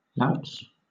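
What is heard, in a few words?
"louts", British English; pronunciations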